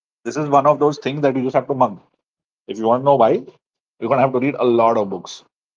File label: voice message